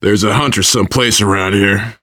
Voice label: heard hunter, voice, biker; Left 4 Dead 2; left4dead2 dlc3; survivor

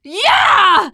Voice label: taunt, teen girl; player; survivor; voice

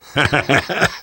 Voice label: reaction positive, survivor voice; namvet; Left 4 Dead 2; left4dead2 dlc2

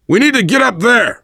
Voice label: world farmhouse, voice, biker

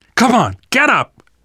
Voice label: revive friend loud, namvet, survivor voice

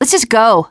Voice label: teen girl

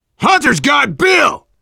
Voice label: hunter bill pounced, voice, biker; Left 4 Dead 2; left4dead2 dlc3; survivor

